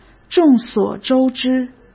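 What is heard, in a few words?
"众所周知", Mandarin Chinese; chinese flashcards; hsk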